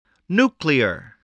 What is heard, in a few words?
"nuclear", voice, spelling